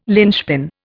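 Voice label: "lynchpin", Indian English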